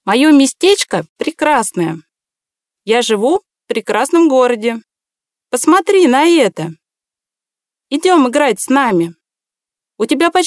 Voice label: Female Russian Toys

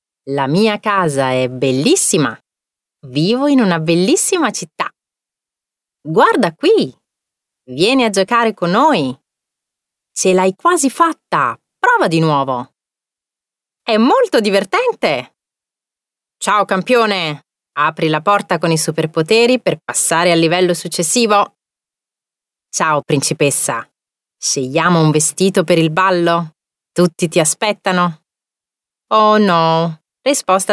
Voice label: Female Italian Toys

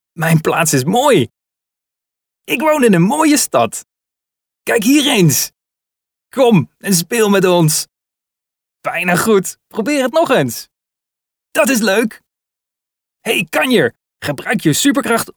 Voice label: NL male children

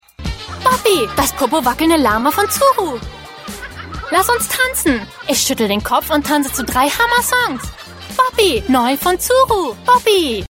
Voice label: DE fem TVC

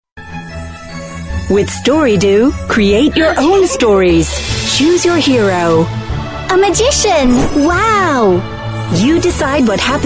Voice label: US fem TVC

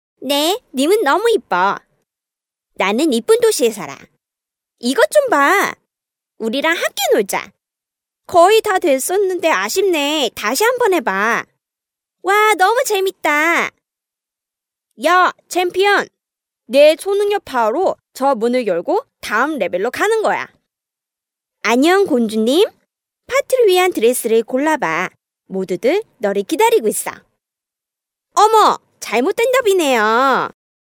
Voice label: Female Korean Toys

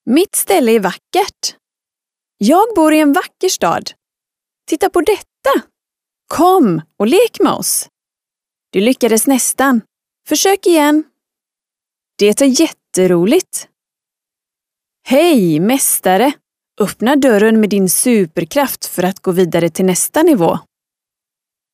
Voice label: Female Swedish Toys

